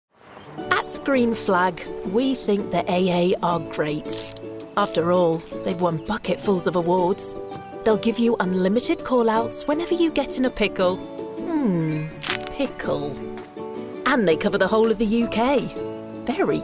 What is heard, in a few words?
COMMERCIAL